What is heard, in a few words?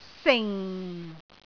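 "sing4", Cantonese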